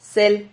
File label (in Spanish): "sel", Silabas